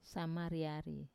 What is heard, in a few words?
"samariari" to sweat, Wamesa